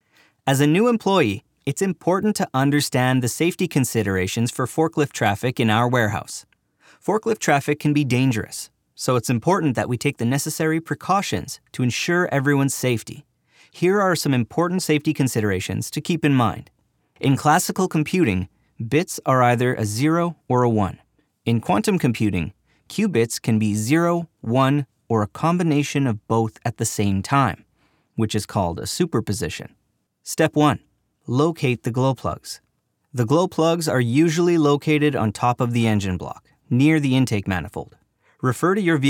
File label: ELearning